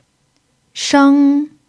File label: "sheng1", pinyin